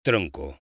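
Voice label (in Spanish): "tronco", Spanish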